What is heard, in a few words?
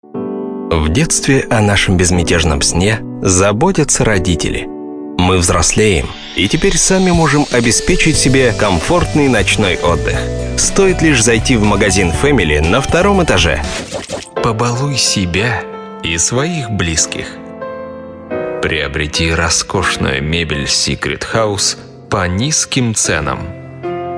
russian voiceover calm short ads